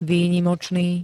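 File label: "výnimočný", Slovak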